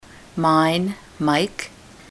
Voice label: mine, ontario